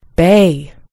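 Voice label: "bay", American English; gcompris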